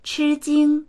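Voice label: "chi1jing1", Mandarin Chinese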